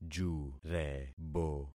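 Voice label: "jurebo", concatenated syllables; Affixing experiment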